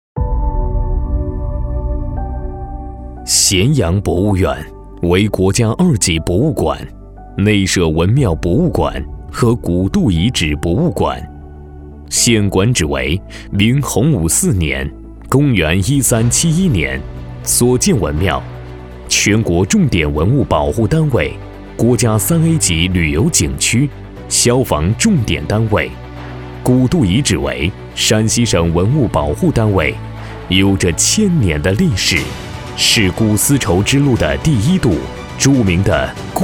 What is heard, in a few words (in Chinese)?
男 专题 博物馆宣传